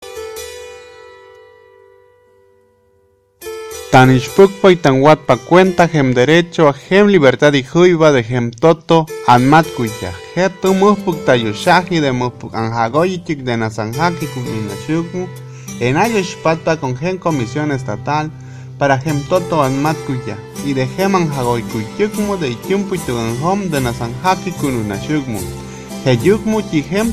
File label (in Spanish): Lengua Popoluca; FI San Andres Tuxtla; Periodistas